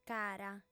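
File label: "cara", female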